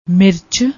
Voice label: "mirch", Punjabi